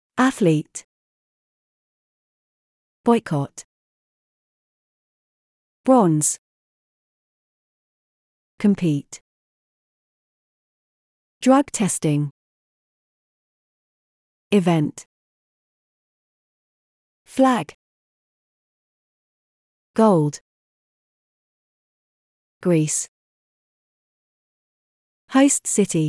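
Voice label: British; olympics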